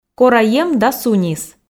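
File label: "кора ем да сунис", Komi, female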